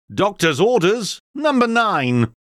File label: call doctors orders 9, Bingo Calls; Bouncy Bingo Balls; Eyecon